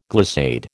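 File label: "glissade", American English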